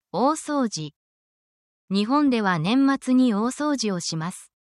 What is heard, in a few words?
japanese essential phrases for cleaning